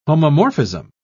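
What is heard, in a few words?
"homomorphism", British English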